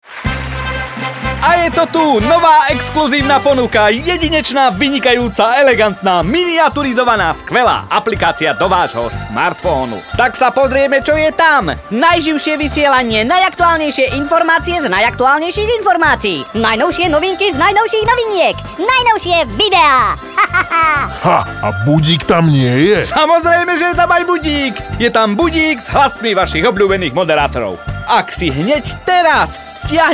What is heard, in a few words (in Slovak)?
teleshopping